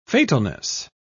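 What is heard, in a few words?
"fatalness", British English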